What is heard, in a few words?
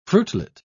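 "fruitlet", British English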